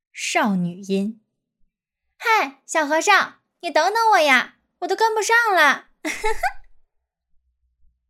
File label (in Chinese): 少女音